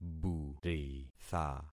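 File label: "buritha", concatenated syllables; Affixing experiment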